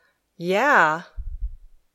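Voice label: "Yeah", English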